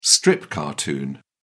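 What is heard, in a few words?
"strip cartoon", British English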